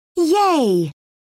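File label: "yay", British English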